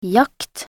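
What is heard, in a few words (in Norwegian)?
"jakt" Norwegian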